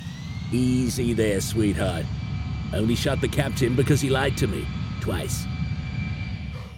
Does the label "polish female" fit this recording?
No